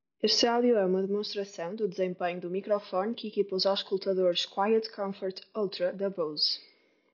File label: headphones microfone audio; bose; quietcomfort ultra